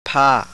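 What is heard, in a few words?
voiceless aspirated bilabial, thai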